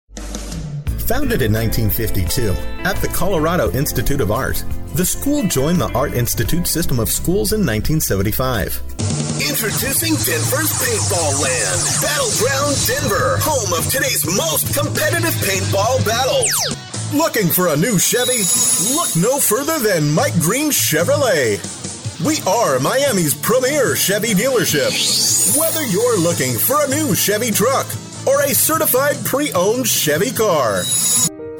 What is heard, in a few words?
USA